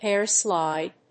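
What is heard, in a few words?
"hair slide", English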